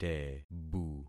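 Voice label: "tebu", concatenated syllables; Affixing experiment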